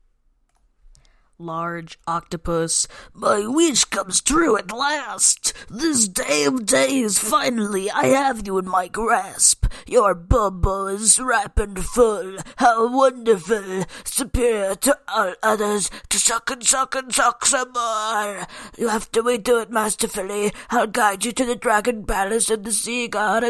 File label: female; [meme]